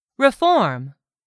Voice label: "REFORM", English; 3000 words

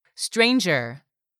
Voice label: "stranger", english; 3000 words

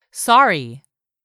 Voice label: "SORRY", english; 3000 words

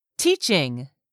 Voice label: "teaching", English; 3000 words

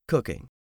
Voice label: "cooking", English; 3000 words

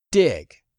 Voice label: "DIG", English; 3000 words

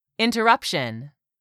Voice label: "interruption", English; 3000 words

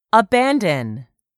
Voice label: "abandon", English; 3000 words

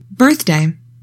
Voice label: "birthday", english; 3000 words

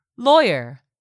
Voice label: "lawyer", English; 3000 words

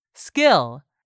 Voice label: "SKILL", english; 3000 words

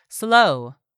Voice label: "slow", English; 3000 words

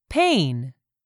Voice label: "pain", English; 3000 words

